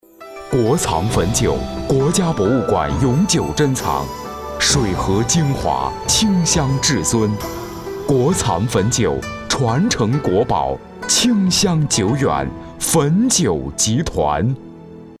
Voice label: 电视广告; 汾酒

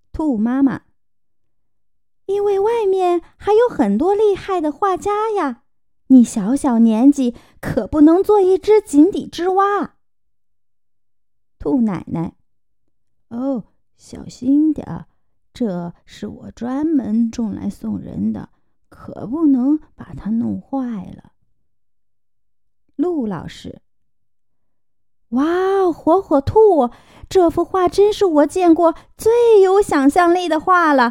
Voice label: 女播; 跟火火兔学典故

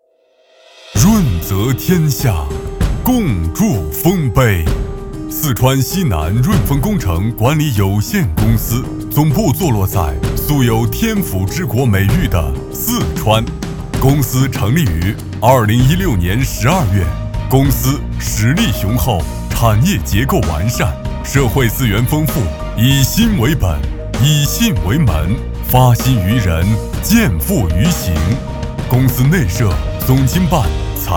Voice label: 宣传沉稳大气; 润丰工程建设

